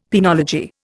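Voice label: "penology", Indian English; Dictionary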